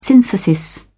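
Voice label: "synthesis", British English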